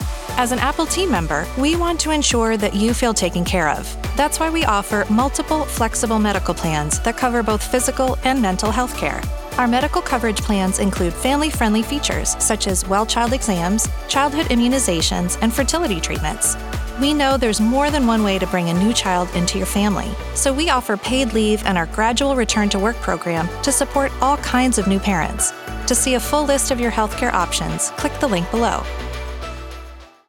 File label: Corporate Explainer